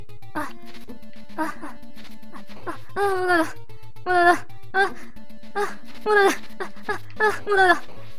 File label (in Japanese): ダメージボイス; 悪魔城ドラキュラ(FC版)